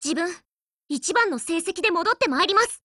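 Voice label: go attack, Japanese; MSBS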